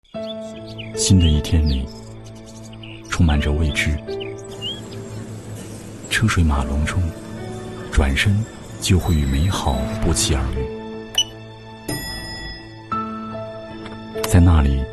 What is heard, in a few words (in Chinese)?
男-广告; 周大福珠宝